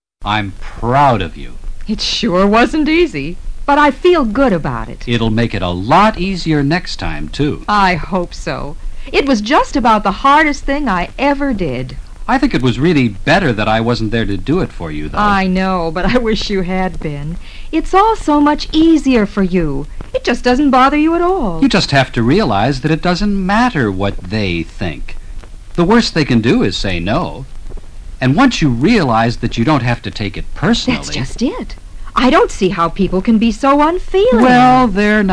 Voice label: conversation